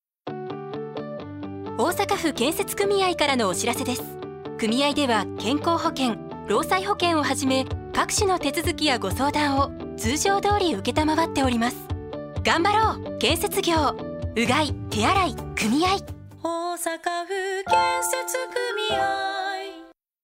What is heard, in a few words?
radio; osaka